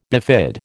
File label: "bifid", American